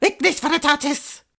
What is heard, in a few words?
"ignis veritatis" rushed, incantations older female; fire spells; psfx